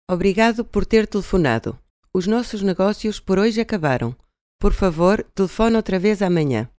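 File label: Portuguese Iberian; קריינות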